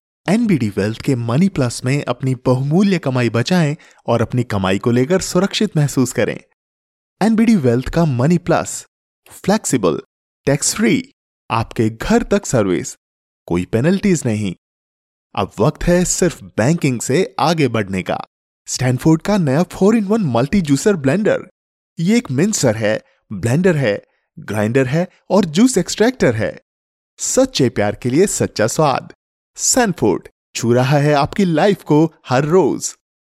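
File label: Hinglish India, male, voice over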